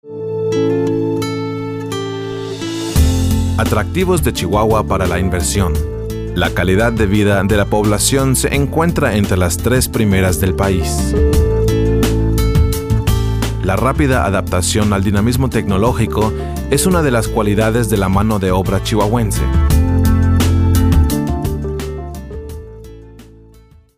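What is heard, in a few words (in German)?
Werbung Sanft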